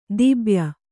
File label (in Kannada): "ದಿಬ್ಯ", Kannada